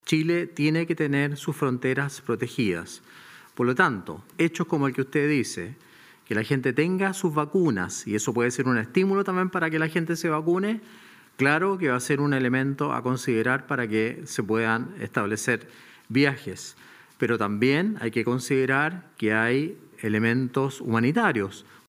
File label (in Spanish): cuña